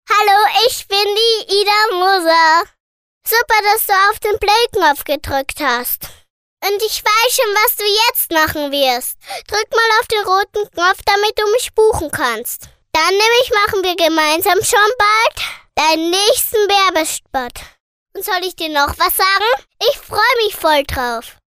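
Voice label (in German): Kinder Neutral, Kinderstimmen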